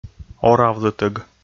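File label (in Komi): "оравлытӧг", Komi, male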